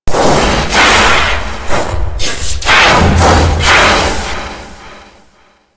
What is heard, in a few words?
Golem Boss Speak Game Taunt; Warframe; Taunts